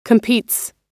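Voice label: "competes", American English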